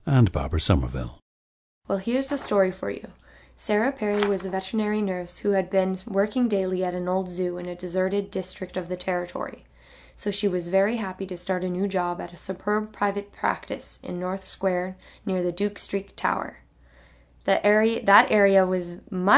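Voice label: manitoba, International Dialects of English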